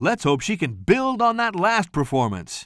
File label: commentator